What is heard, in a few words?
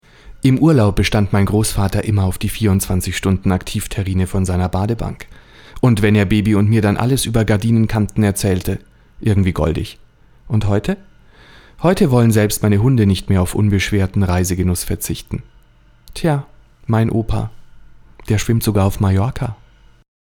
neutral